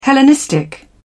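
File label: "hellenistic", British English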